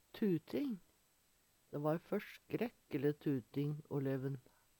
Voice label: "tuting", Numedalsmål; De va ei førskrekkjele tuting o leven.

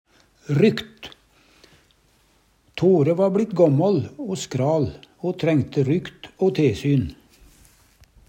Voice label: rykt, Numedalsmål

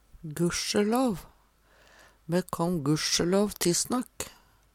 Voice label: gussjelav, Numedalsmål; Interjeksjon

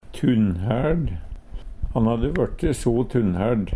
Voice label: "tunnhærd", Numedalsmål; Han hadde vørte so tunnhærd.; Adjektiv

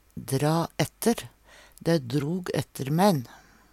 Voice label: "dra aetter", Numedalsmål; angre, blei sjukare

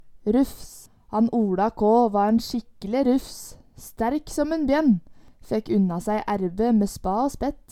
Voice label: rufs, Numedalsmål

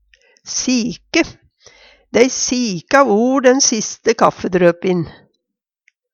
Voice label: "sike", Numedalsmål; Dei sika or kjæl'n den siste kaffidrøpin.

